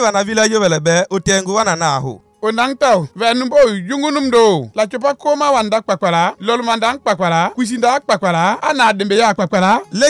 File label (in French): SPOT EBOLA 2 HOMMES KISSI